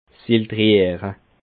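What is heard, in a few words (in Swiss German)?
"filtriere", Alsatian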